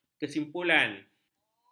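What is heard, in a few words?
"kesimpulan", Malay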